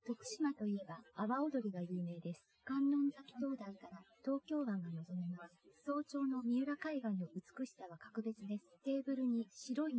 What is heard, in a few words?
test female inst sim